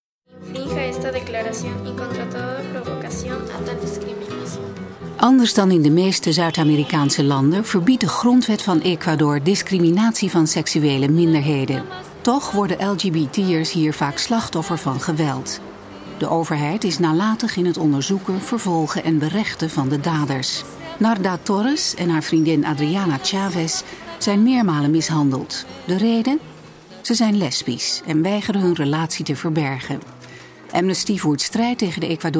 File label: dutch